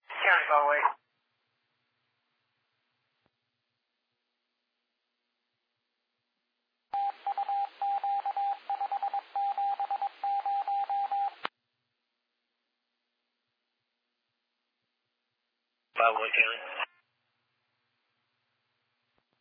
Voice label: Scanner